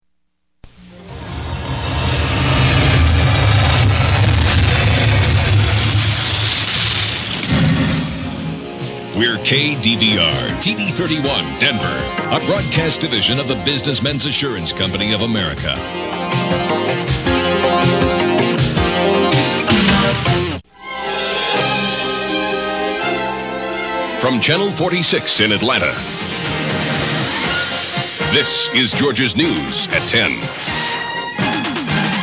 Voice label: 80's TV Promos, ID's; RETRO SUPERFISCH